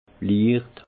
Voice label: "licht", alsace; vin; léger

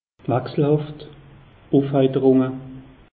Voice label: "wechselhaft", alsace; meteo

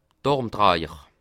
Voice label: "armtrajer", alsace

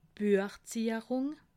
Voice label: "buechzierung", alsace

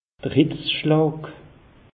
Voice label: "hitz schlaag", alsace; meteo

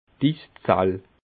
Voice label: "iszall", alsace

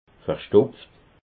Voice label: "verstopft", alsace

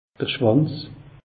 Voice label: "schwanz", alsace; meteo

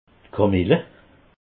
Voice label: "kamille", alsace

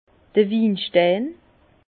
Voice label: "winstein", Bas Rhin; vin; cristaux de tartre

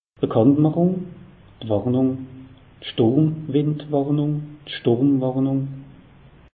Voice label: "bakanntmachung", alsace; meteo

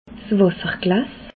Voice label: "wasser glas", alsace

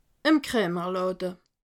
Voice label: "kramerlade", alsace

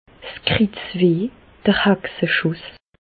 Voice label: "kritzweh", Bas Rhin; medecine; lumbago

